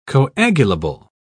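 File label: "coagulable", English, British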